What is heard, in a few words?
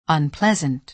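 "unpleasant", American English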